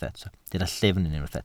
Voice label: "llyfn", Welsh